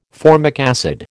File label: "formic acid", American